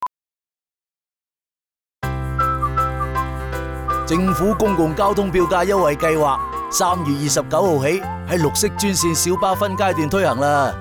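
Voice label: Cantonese Radio; 分阶段扩展至绿色专线小巴[2015]; 政府长者及合资格残疾人士公共交通票价优惠计划 (二元优惠计划); 粤语广播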